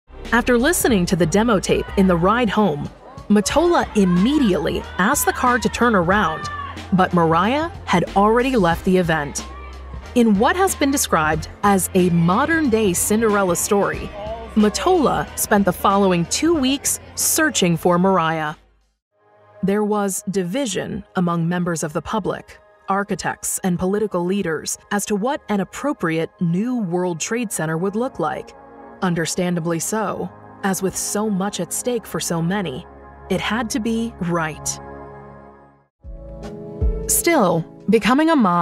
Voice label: Documentary